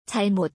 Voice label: "jalmot", Korean